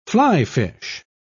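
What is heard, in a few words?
"fly-fish", British English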